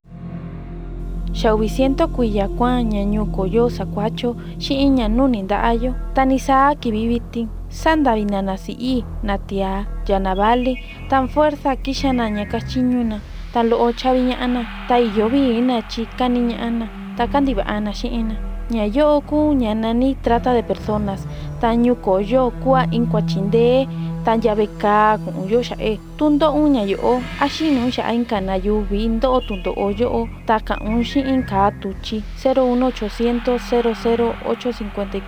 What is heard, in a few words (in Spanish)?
mixteco de guerrero del este medio; Trata Laboral